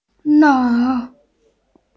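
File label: "leng3" Chinese, female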